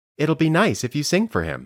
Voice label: Dictation